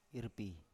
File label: "irpi", Biak